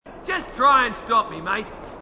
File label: marine, aussie; h2dialogue